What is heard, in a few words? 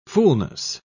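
"fulness", British English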